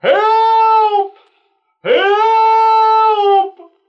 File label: "help help", cartoon; Voice